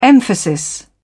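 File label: "emphasis", British English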